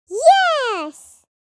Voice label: Tiny meme voice "yes"